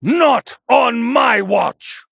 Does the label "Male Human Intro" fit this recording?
Yes